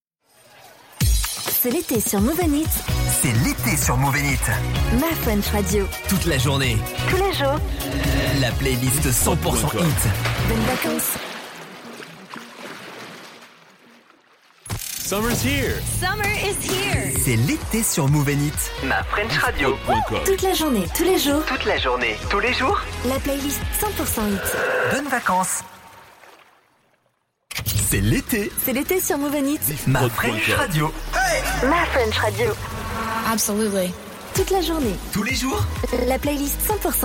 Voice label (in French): Jingles Ete x5; Moov N Hits